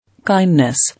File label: "Kindness", English